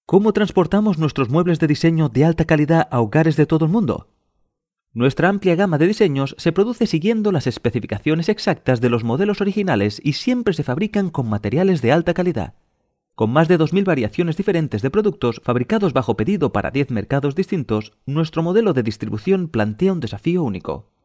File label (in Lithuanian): Ispanu balsas, vyras